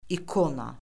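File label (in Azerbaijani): "ikona", Azerbaijani; Orfoqrafiya Lüğəti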